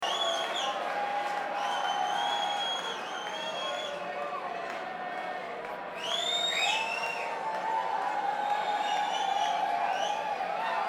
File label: Banter Crowd